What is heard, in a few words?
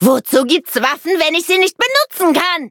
loading, de; cookie run